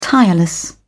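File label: "tireless", British English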